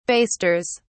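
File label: "basters", British English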